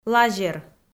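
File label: "лазер" Komi, female; kpv audio dict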